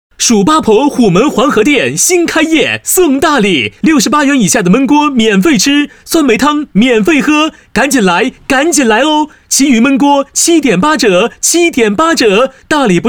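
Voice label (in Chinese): 【广告】男-欢快; 蜀八婆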